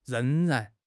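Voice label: "reng2 ran2", Chinese; HSK4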